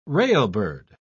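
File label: "railbird", British English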